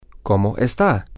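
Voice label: How Are You Formal, Spanish, male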